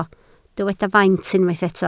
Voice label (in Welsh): "faint", Welsh